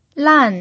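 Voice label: "lan", Mandarin Chinese pinyin, tone 4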